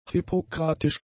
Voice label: "hippokratisch", German; adjectives

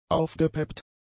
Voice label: "aufgepeppt", German; adjectives

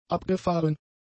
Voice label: "abgefahren", German; adjectives

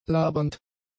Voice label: "labernd", German; adjectives

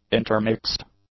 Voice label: "intermixed", english; adjectives

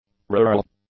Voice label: "rural", English; adjectives

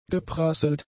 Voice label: "geprasselt", German; adjectives

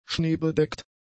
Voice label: "schneebedeckt", German; adjectives

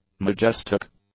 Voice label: "majestic", English; adjectives

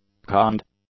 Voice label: "conned", English; adjectives

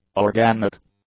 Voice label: "organic", English; adjectives

